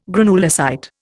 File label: "granulocyte", Indian